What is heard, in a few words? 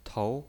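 "tou", pinyin voice, second tone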